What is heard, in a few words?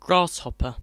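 "grasshopper", British English; pronunciations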